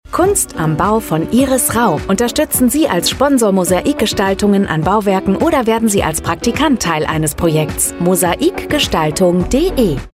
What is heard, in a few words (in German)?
Funkspot; IR Mosaiken